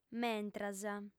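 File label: "mentras" Sardinian, female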